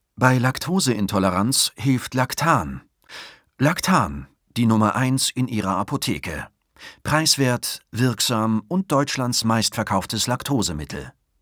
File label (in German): werbung serioes